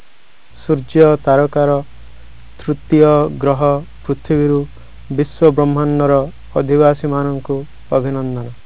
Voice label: oriya; Golden Record

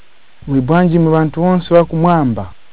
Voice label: nyanja; Golden Record

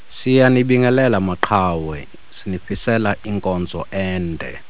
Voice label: nguni; Golden Record

